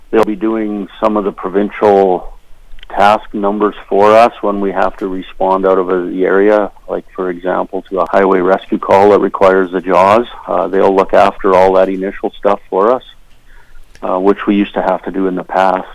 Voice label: DISPATCH HIGHWAY CALLS